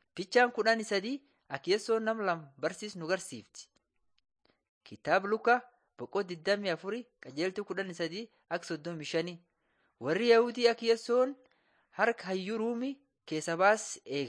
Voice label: borana sakuye; laali chaqasi jiradin 7 jesus lord and saviour